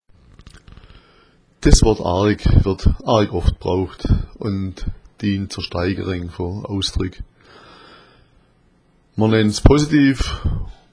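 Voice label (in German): "arg", schwäbisch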